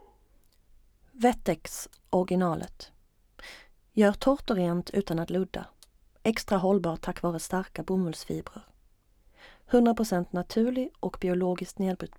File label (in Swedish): Neutral skånska